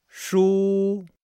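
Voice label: "shu", Chinese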